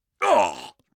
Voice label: pain, combine soldier; hl2